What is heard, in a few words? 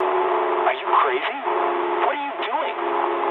eng player killed ally mood low, speech eng; War Thunder